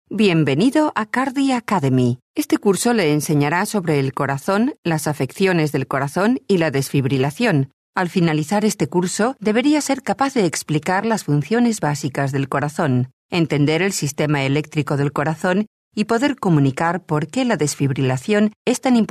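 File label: Spanish, female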